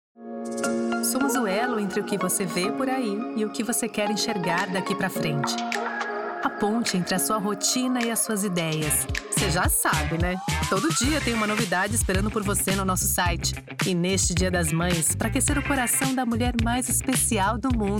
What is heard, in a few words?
foreigner